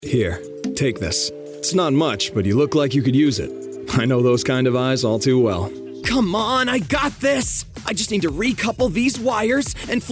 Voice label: Commercial